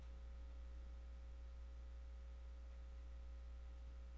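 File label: "lick", spoken word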